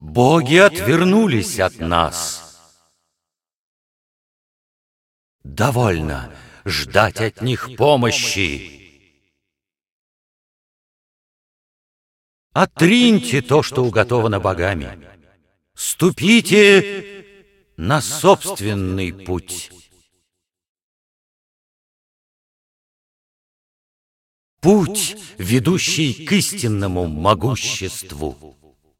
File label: cinematic voice, ru; lineage2